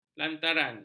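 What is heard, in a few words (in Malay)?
"lantaran", Malay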